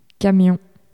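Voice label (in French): "camion" French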